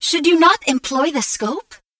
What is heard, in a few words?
announcer sniper rifle kill airborne snapshot